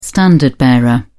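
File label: "standard bearer", British English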